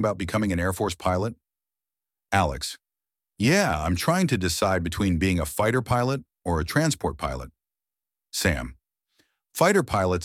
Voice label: dialog; role of pilots in the air force; transport or fighter